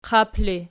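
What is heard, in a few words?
"qap" ergative, Archi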